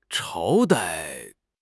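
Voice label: "朝代", Chinese; HSK6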